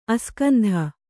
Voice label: "ಅಸ್ಕಂಧ", Kannada

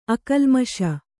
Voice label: "ಅಕಲ್ಮಷ", Kannada

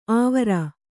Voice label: "ಆವರ", Kannada

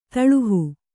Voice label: "ದುಲ್ಲಹ", Kannada

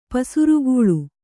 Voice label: "ಪಸಿರ್ಗಲ್", Kannada

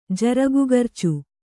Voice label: "ಜರಗುಗರ್ಚು", Kannada; jaragugrcu

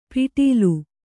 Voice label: "ಪಿಟೀಲು", Kannada

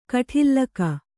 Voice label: "ಕೋಟಲಿಗ", Kannada

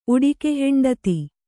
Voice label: "ಉಡಿಕೆಹೆಂಡತಿ", kannada

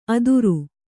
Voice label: "ಅದುರು", Kannada

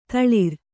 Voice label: "ತಳಿರು", Kannada

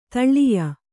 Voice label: "ದೊಳ್ಳಾಯಿ", Kannada

